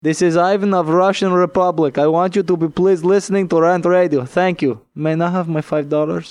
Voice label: Promo, Language Russian; RantRadio; RantMedia; Station Promos-Listener Submitted